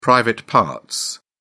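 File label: "private parts", British English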